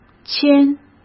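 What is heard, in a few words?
"迁", Mandarin Chinese; hsk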